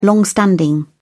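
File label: "long standing", British English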